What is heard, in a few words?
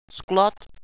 storage room, radio bot